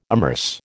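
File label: "immerse", American English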